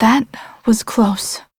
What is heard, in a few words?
Revive Operator Voice en; Warframe; Operator Mission